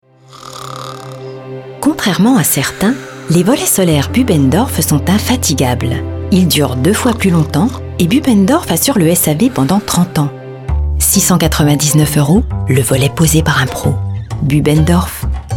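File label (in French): PUB, Voix off; Bubendorff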